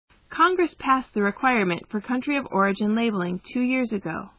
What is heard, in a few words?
M